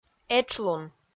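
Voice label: "этшлун", Komi, female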